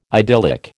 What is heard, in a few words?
"idyllic", American